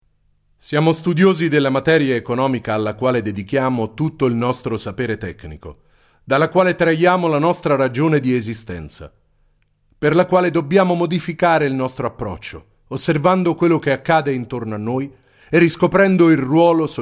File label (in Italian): messaggio benvenuto; studio boccanera; twitter